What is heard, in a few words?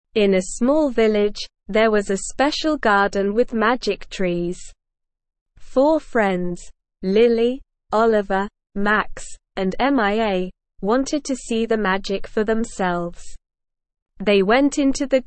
ESL Short Stories for Kids Beginner SLOW Reading; The Orchard of Oddities